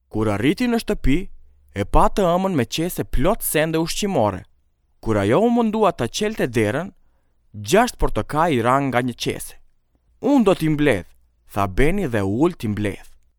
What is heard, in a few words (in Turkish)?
Arnavutluk Erkek, Arnavutca seslendirme